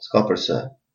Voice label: youre welcome, albanian gheg